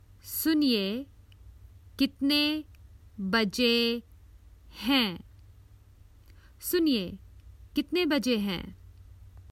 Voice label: Common Hindi Phrases for travel Excuse me what time is it